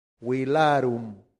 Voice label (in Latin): "villarum", latin; santillana